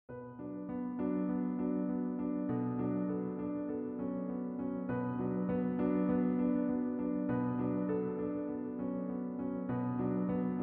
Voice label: narrator